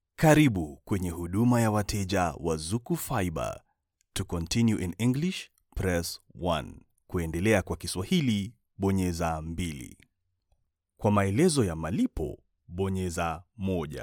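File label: swahili interactive voice response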